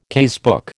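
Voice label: "casebook", American English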